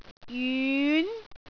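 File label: "jyun2", Cantonese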